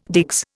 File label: "digs", Indian